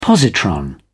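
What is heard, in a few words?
"positron", British English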